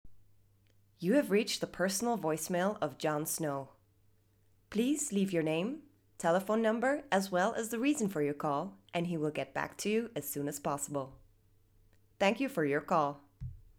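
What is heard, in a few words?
EN US Voicemail, US English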